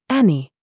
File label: DRESS TRAP, minimal pairs